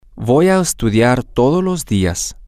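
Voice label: I am going to study everyday, Spanish, male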